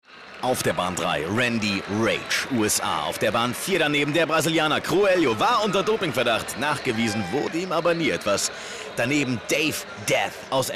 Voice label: kommentar

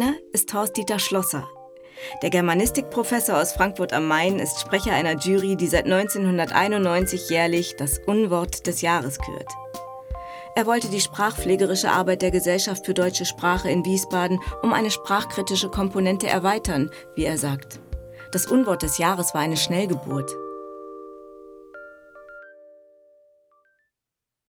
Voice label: Manipulative

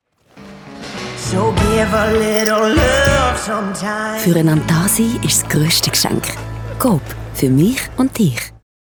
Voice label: Weihnachtsspot kurz CH deutsch; Coop

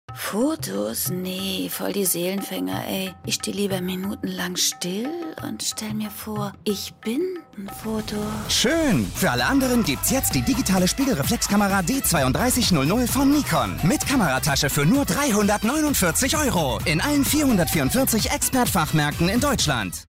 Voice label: werbung szene; expert

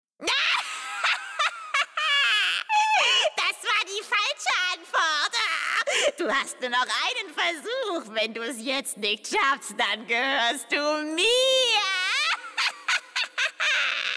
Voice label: trickstimme hexe

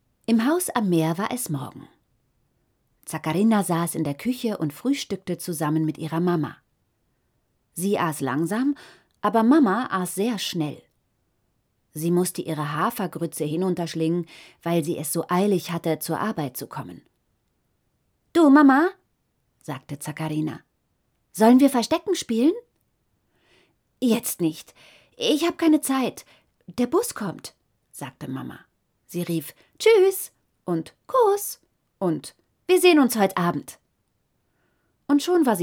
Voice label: Hoerprobe Kinderbuch; Zackarina und der Sandwolf

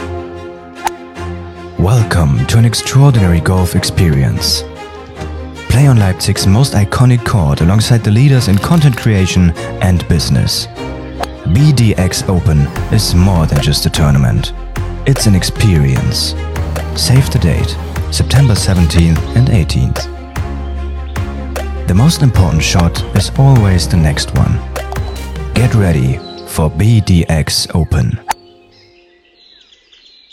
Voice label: Teaser Englisch; BDX Open

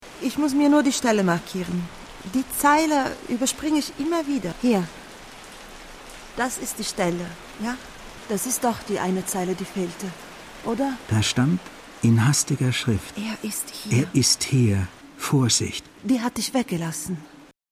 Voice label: hoerspiel deutsch

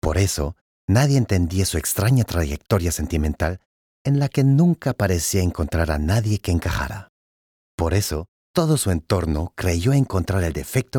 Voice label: hoerbuch es; LOFT VOICES

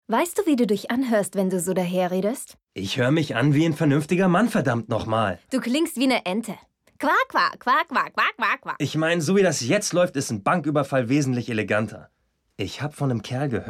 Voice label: Dialog

